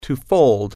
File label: "fold", English